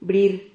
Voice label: "brir", Silabas

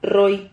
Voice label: "rroi", Silabas

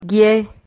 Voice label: "guie", Silabas

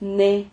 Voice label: "mne", Silabas

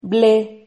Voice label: "ble", Silabas

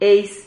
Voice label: "eis", Silabas

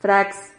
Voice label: "frax", Silabas

